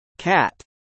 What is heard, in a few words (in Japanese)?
"cat", アメリカ英語